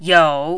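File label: "you3", mandarin, dipping tone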